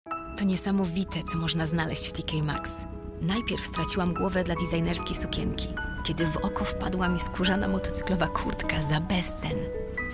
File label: Female VO Commercial